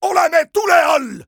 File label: neutral